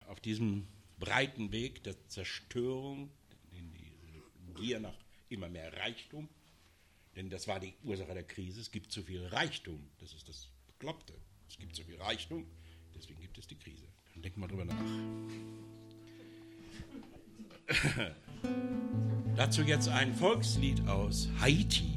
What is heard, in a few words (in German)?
ansage; abgesang